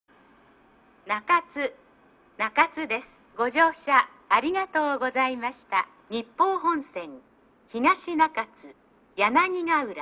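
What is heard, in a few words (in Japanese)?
詳細乗り換え放送, 中津駅; 宇佐方面; 1日数本だけ、同一ホームで接続してない列車があり、詳細なのりば案内が流れていました。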